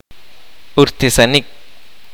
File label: kaqchikel; Blessing